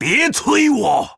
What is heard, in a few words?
Male Troll Time; zh